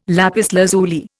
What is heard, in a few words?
"lapis lazuli", Indian